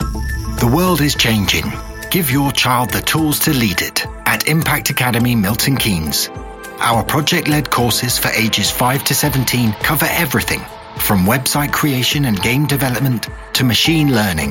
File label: commercial radio